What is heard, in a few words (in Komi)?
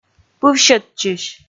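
"пывсьӧдчысь", Komi, female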